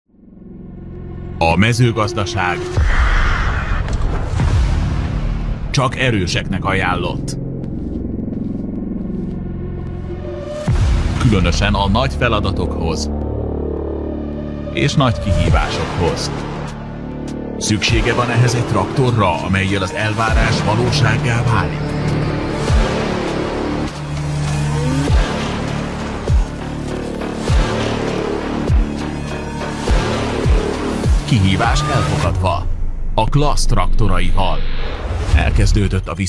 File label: hungarian movie trailers